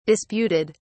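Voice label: "disputed", British English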